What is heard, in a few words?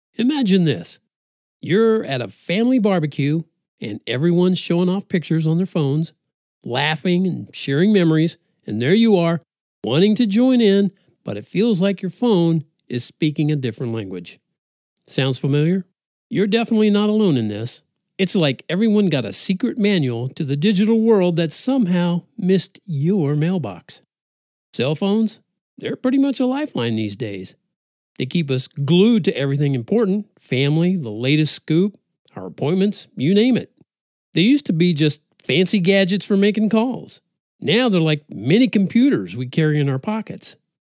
iphone audiobook, American Male Voice Over Actor And Narrator